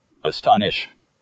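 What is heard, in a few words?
"astonish", American English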